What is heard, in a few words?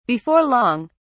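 "before long", en, uk British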